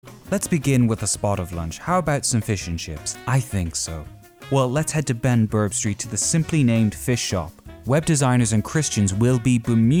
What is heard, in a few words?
male english irish southern neutral irish accent radio; monocle radio presenting